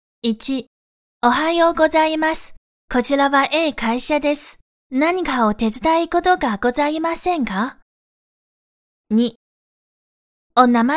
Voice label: 中日语

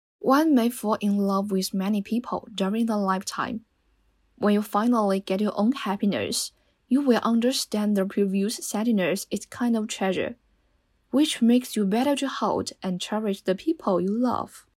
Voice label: 英语

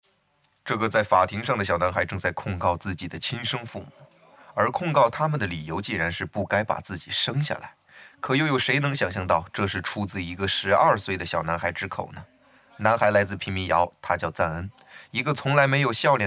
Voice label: 电影解说

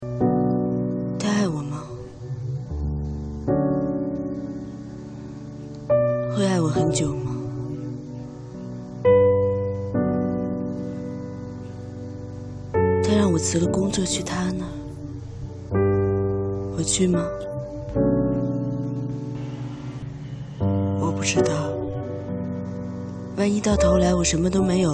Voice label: 明星模仿; 周迅

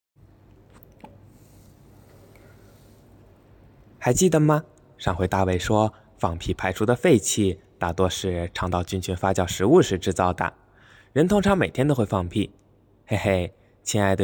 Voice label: 少年音

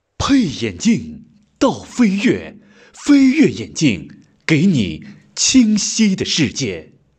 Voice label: 商城广告 声音干练有震撼力

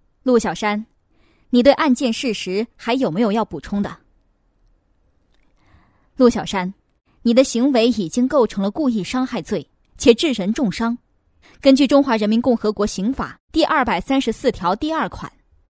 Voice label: 角色 配音

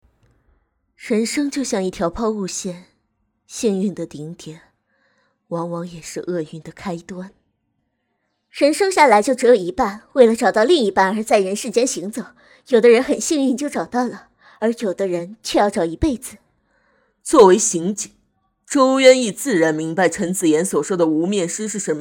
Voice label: 三种女旁白

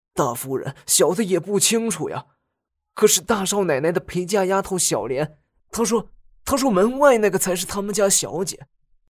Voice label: 老管家

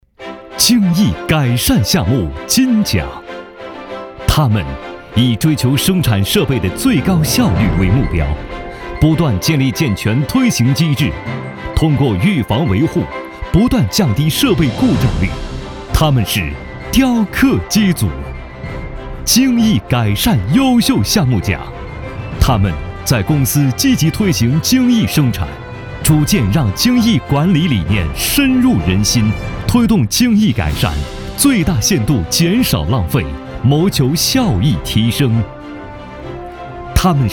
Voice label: 颁奖大气正式

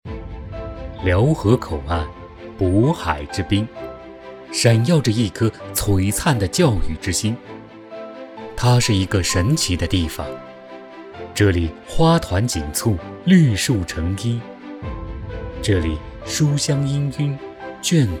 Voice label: 宣传片 高中教育集团; 辽油高中教育集团

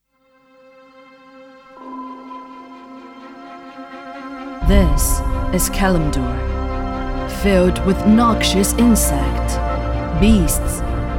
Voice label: 游戏开场配音女王; 游戏开场英文配音女王

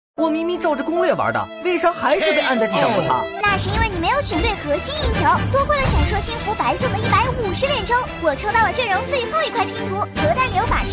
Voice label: 游戏配音; 游戏信息流配音; 少女音

